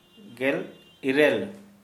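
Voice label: Santali, male, eighteen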